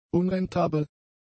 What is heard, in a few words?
"unrentabel", German; adjectives